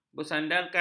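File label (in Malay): "bersandarkan", Malay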